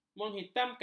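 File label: "menghitamkan", Malay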